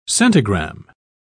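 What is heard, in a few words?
"centigramme", British English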